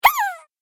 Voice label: Cartoon Game Voice "Hyaa"; Cartoon Voice Sound Effects